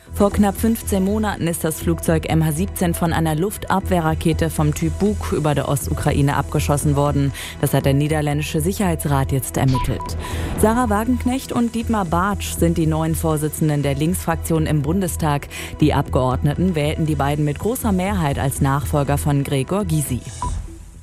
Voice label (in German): News